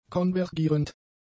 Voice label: "konvergierend", German; adjectives

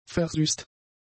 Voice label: "versüßt", german; adjectives

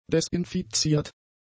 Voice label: "desinfiziert", german; adjectives

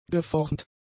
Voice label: "geformt", German; adjectives

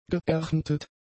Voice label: "geerntet", German; adjectives

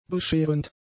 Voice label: "bescherend", German; adjectives

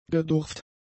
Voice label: "gedurft", German; adjectives

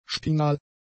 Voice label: "spinal", german; adjectives

